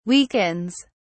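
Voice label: "weakens", British English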